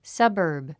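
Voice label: "suburb", English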